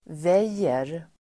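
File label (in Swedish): "väjer", Swedish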